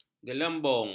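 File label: "gelembung", Malay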